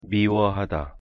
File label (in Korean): "미워하다" Korean; pronunciation